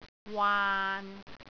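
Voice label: "waan6", Cantonese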